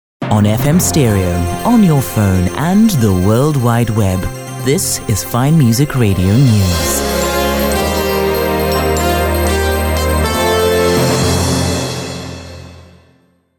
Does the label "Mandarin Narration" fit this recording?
No